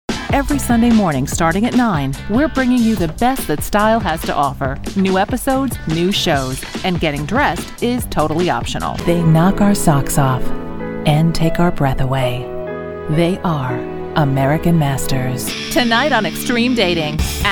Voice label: promos